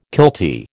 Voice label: "kiltie", American